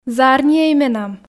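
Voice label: "зарниӧй менам", Komi, female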